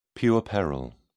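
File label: "puerperal", British English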